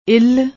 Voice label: "ell", Punjabi; ਇੱਲ